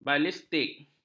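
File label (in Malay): "balistik", Malay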